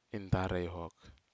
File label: Aneityum traditional broom